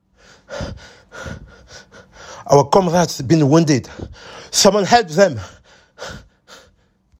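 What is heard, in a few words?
French African, Accented English; GoLocalise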